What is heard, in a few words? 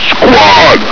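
"squad!", male; hl2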